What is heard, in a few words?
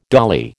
"dolly", American